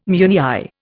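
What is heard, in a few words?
"meuniÊre", English, Indian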